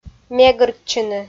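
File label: "мегыртчыны", Komi, female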